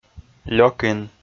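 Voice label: "лёкин", Komi, male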